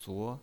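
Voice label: "zuo", Mandarin Chinese, tone 2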